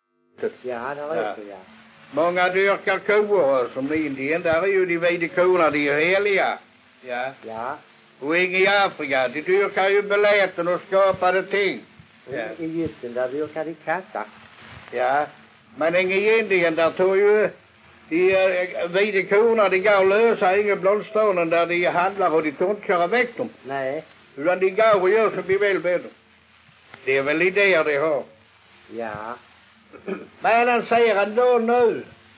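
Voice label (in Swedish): Allerum Skåne, dialekter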